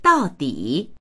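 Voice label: "dao4di3", Chinese; 到底; 結局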